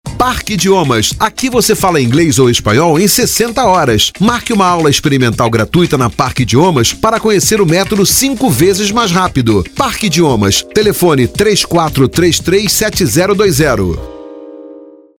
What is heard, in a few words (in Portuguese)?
Spot de Radio